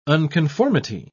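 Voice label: "unconformity", British English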